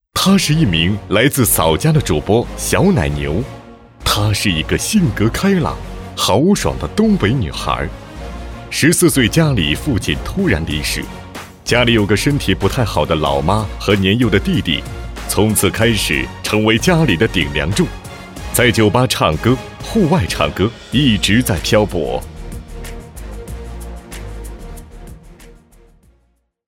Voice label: 男 颁奖 大气颁奖人物介绍

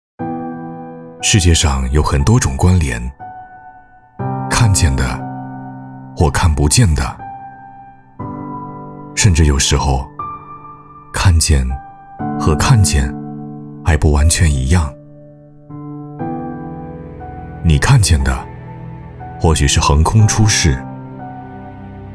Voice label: 男 走心旁白 大气低沉

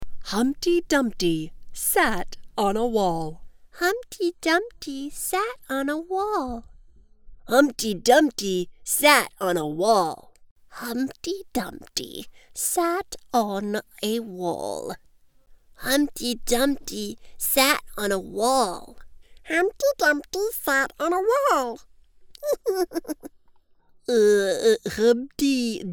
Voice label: 外籍英女 多种风格; Humpty Dumpty

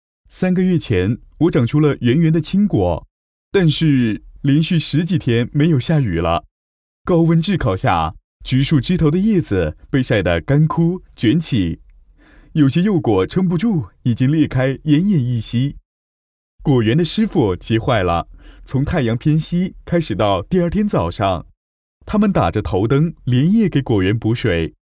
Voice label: 男女声角色配音

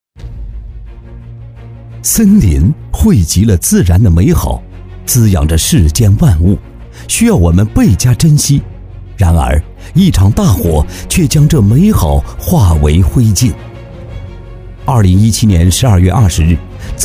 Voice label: 男 宣传片 紧张 森林消防警示片

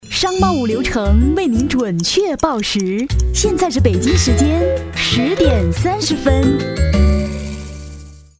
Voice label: 纯报时

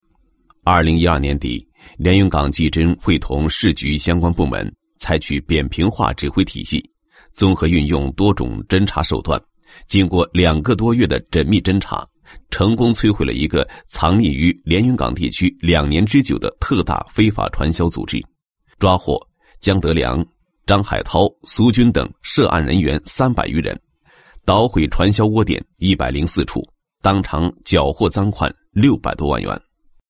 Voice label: 男 高音 讲述类讲故事录音; 连云港技侦; 国语男声配音

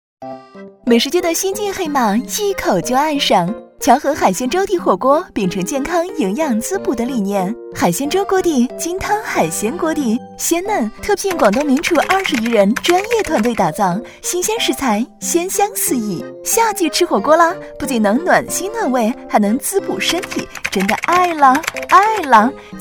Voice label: 女 短视频 火锅; 乔合火锅

